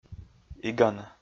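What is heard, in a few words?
"игана", Komi, male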